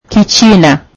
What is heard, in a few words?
swahili Chinese